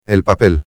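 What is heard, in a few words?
"el papel", Spanish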